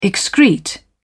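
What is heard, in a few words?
"excrete", British English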